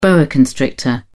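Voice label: "boa", British English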